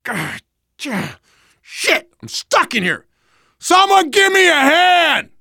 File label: call for rescue, survivor voice biker; Left 4 Dead 2